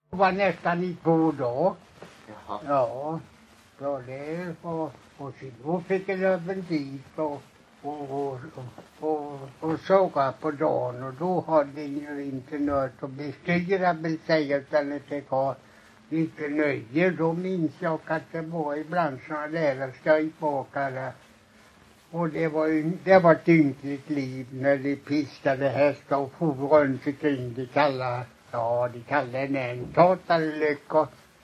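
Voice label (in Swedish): Lommaryd Småland, dialekter svenska sagor; Folkminnen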